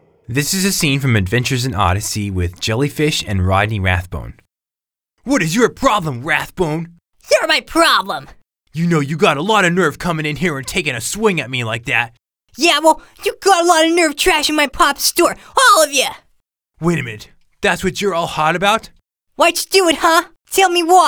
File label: Childrens Animation; Rodney Rathbone; Jellyfish; Adventures in Odyssey